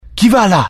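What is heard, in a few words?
curious, Italian; mohaa; dialogue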